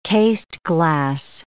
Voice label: "cased glass", English